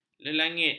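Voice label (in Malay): "lelangit", Malay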